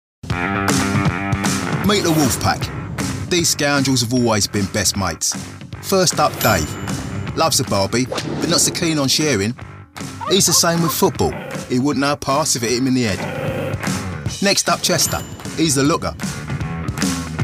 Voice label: commercials

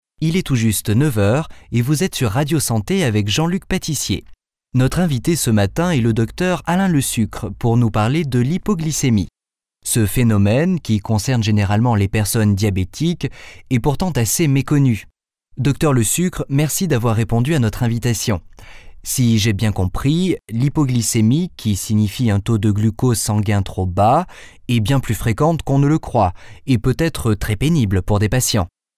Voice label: corporate

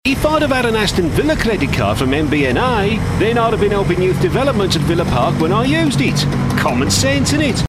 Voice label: brummie

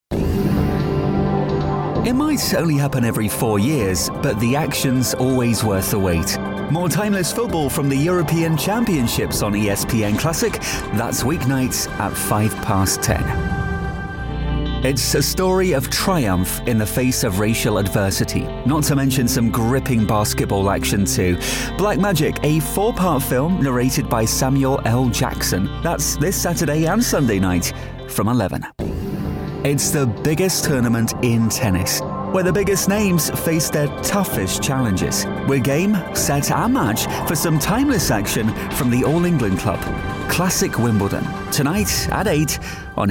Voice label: tv continuity

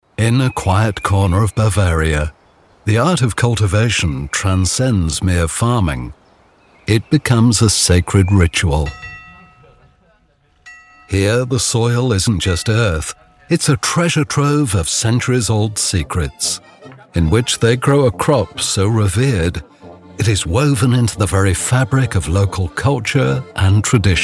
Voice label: narration (storyteller)

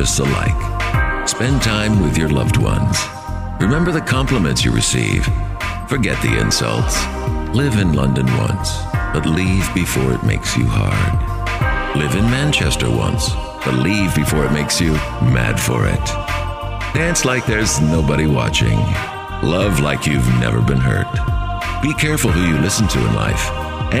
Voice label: deep american, psa slow down

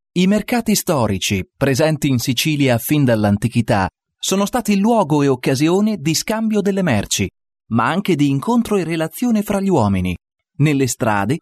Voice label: italian corporate

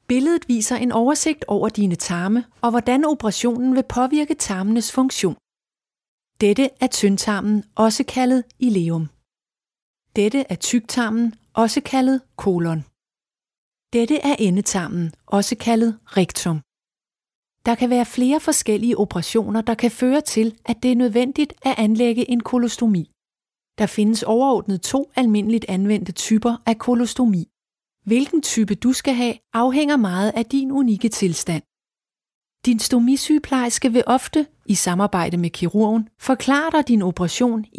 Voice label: danish female medical corporate

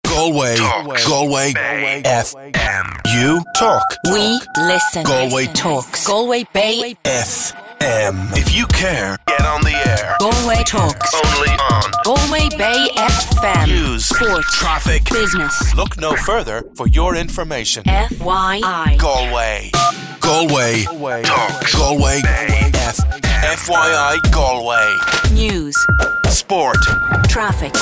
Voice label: irish male imaging

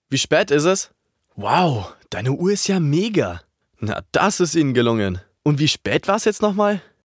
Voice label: german natural

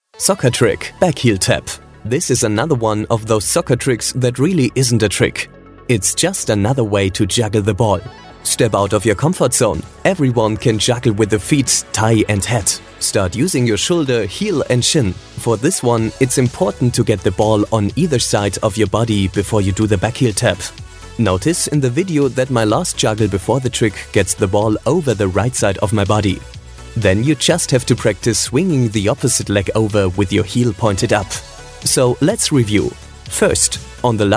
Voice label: german male english

